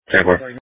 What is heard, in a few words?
Police Dispatch